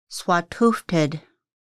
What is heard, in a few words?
"forest" swatixʷtəd, Lushootseed; family activity hunting feasting